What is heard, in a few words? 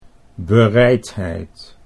"bereidheid", Dutch